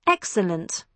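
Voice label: "excellent", British English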